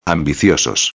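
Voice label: "ambiciosos", Spanish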